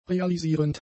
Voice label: "realisierend", German; adjectives

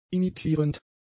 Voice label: "imitierend", German; adjectives

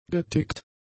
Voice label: "getickt", german; adjectives

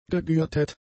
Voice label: "gegürtet", german; adjectives

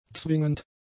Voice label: "zwingend", German; adjectives

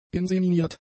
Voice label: "inseminiert", German; adjectives

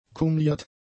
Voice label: "kumliert", German; adjectives

